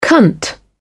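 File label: "cunt", British English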